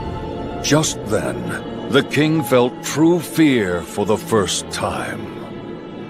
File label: narrator